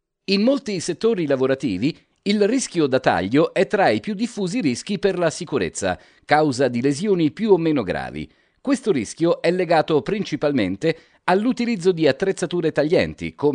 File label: Italian Male, Kurumsal, Reklam, Eğitim; Erkek; İtalyanca